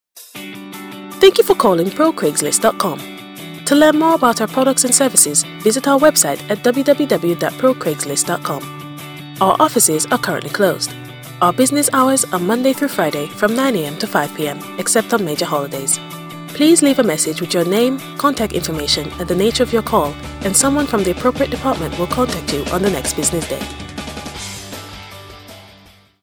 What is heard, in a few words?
uk